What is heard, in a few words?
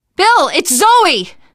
generic responses, teen girl, voice; source engine; left 4 dead 2; survivor